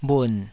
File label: "bhun3", taiwanese Syllables